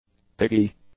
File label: "piggy", english; adjectives